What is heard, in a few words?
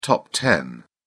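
"top ten", British English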